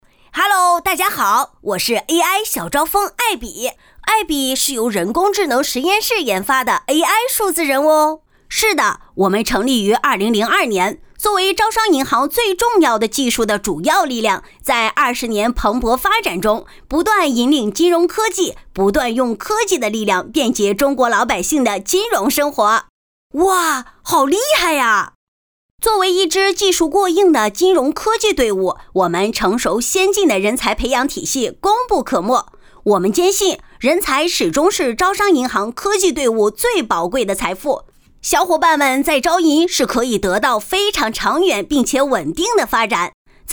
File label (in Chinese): 男童